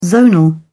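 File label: "zonal", British English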